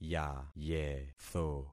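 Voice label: "yayetho", concatenated syllables; Affixing experiment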